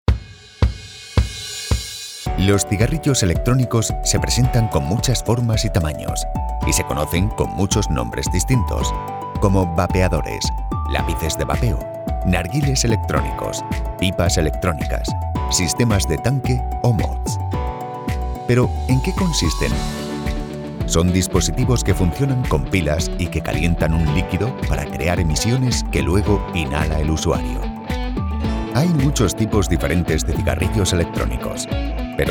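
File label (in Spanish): spanish e-learning, Español voice actor, Una voz grave; requiera transmitir seguridad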